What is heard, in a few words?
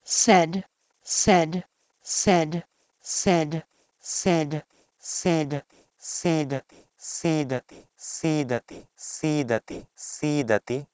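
Proto-Indo-European "sed" to Sanskrit "siidati"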